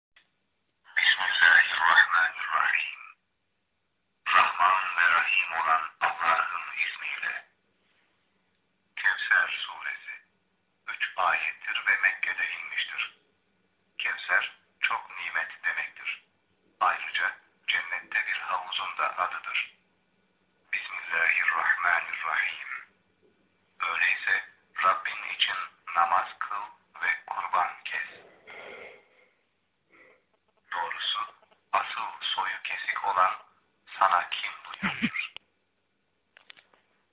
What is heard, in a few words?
Turkish